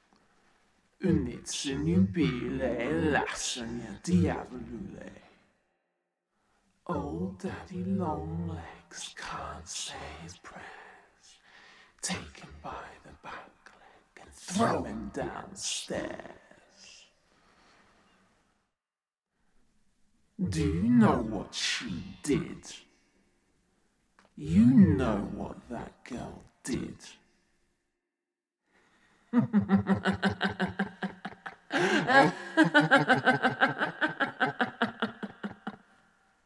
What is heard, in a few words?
Demonic Voice